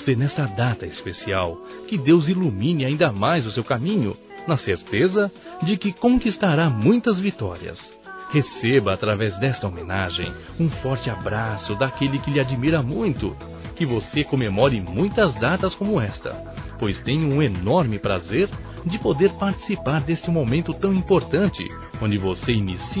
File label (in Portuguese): PRIMA M